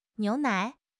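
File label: "niu2 nai3", Mandarin Chinese; HSK2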